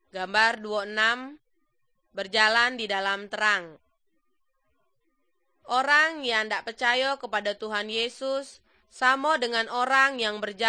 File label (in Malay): good news malay jambi